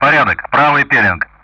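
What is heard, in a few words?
Speech Sound Russian; DCS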